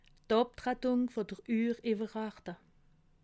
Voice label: "abtrettung", alsace

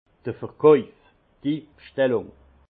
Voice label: "verkauf", alsace; vin; vente commande

